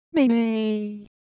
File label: Ewe Shape, ideophones